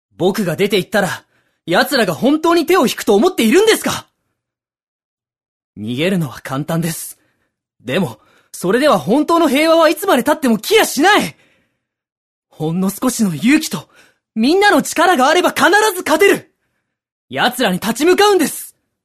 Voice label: m-kakkoii